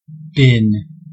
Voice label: "bin", us

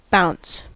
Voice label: "bounce", us

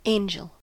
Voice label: "angel", us

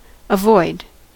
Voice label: "avoid", us

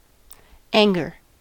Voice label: "anger", us

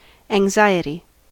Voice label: "anxiety", us

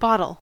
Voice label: "bottle", us

